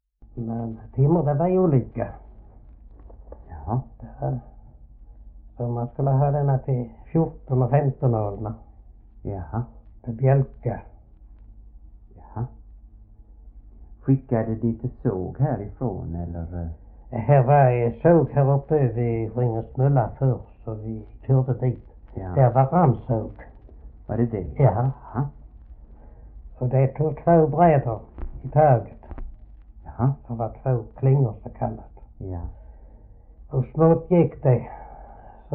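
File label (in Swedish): Oderljunga Skåne, Svenska sagor, dialekter; Folkminnen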